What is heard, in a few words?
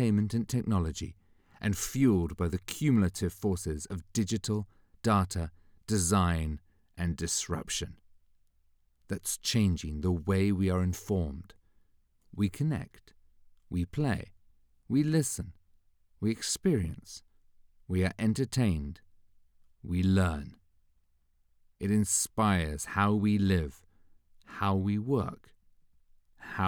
Indstrial Met Effect